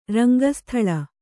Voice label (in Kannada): "ರಂಗಸ್ಥಳ", Kannada